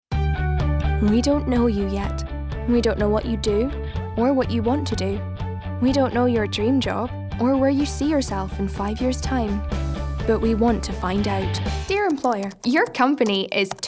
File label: commercial